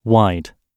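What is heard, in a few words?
"wide", British English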